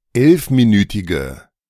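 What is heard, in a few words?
"11-minütige" German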